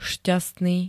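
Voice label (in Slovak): "šťastný", Slovenské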